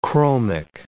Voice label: "chromic", English

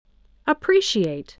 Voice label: "appreciate", English

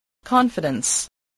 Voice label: "confidence", english

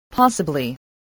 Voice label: "possibly", English

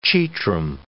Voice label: "chetrum", English

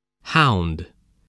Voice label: "hound", voice spelling

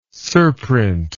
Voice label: "surprint", English word

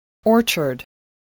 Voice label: "orchard", English voice

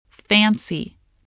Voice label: "fancy", voice

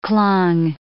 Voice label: "clung", English

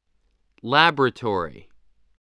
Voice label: "laboratory", English

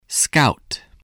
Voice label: "scout", english spelling

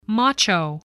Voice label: "macho", spelling voice

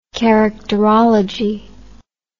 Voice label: "characterology", English

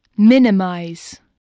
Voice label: "minimize", voice, spelling

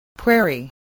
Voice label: "prairie", voice, spelling

